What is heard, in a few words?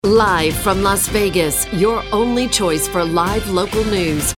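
Announcer Informative Newscaster; Fox News Las Vegas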